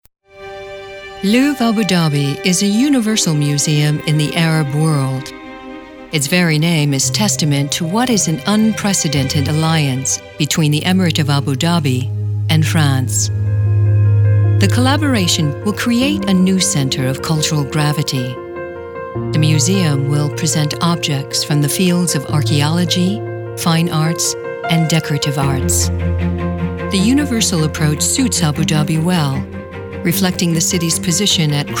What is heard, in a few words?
voiceover narration